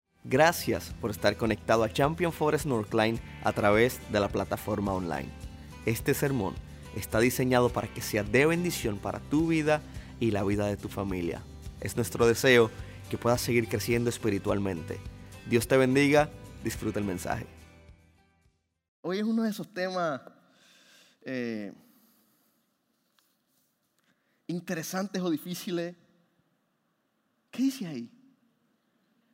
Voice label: Sermon Spanish